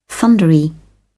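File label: "thundery", British English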